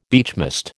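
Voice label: "beechmast", American English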